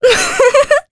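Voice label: Vox Happy, Japanese; Veronica; kingsraid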